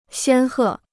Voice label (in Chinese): "仙鹤", Chinese